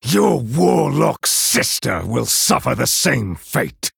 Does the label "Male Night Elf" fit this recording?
Yes